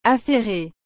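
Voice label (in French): "affaire", French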